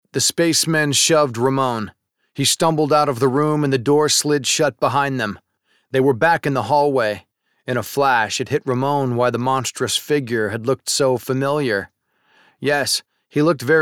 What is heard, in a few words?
Audio Book Action Sci Fi